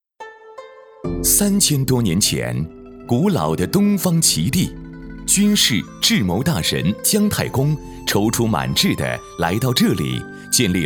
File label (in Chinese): 男 专题 浑厚舒缓 酒店; 齐林酒店